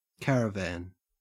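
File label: "caravan" English, Australian accent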